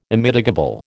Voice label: "Immitigable", American

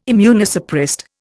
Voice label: "immunosuppressed", Indian

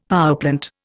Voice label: "powerplant", Indian; Dictionary

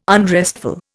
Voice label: "unrestful", Indian

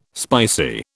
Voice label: "spicy", British

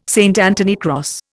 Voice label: "St Anthony cross", Indian

